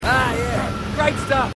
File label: marine aussie; h3dialogue